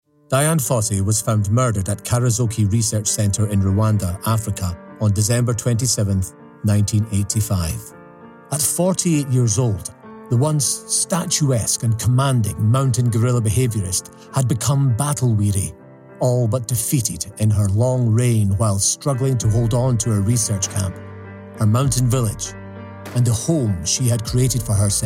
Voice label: Audiobook Extract